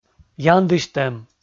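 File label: "яндысьтӧм", Komi-Zyrian, male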